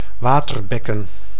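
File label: "waterbekken", Dutch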